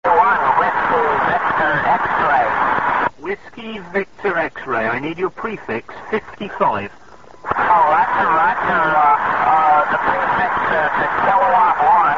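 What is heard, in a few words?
amateur radio contact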